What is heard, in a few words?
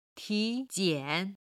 "tijian", Chinese